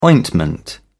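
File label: "ointment", British English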